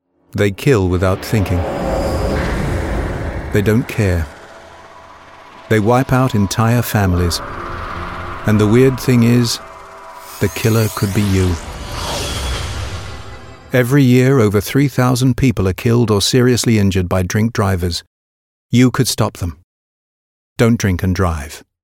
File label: advert drink drive